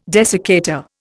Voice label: "desiccator", Indian

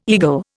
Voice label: "eagle", Indian

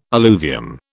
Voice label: "alluvium", British

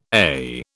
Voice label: "AIH", British

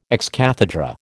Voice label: "ex cathedra", American

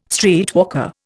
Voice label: "streetwalker", Indian

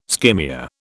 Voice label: skimmia, British

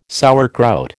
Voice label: "sauerkraut", American

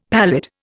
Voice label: "pallid", Indian; Dictionary

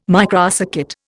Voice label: "microcircuit", Indian

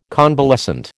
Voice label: "convalescent", American

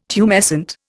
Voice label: "tumescent", Indian

